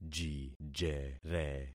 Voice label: "jijere", concat syllables; Affixing experiment

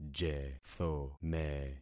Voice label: "jethome", concat syllables; Affixing experiment

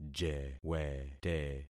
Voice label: "jewete", concat syllables; Affixing experiment

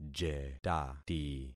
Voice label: "jetati", concat syllables; Affixing experiment

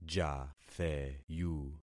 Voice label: "jatheyu", concat syllables; Affixing experiment

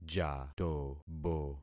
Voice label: "jatobo", concat syllables; Affixing experiment

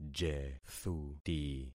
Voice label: "jethuti", concat syllables; Affixing experiment

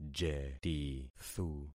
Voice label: "jetithu", concat syllables; Affixing experiment

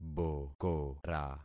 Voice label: "bokora", concat syllables; Affixing experiment

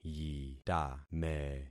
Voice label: "yitame", concat syllables; Affixing experiment

